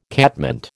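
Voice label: "catmint", American English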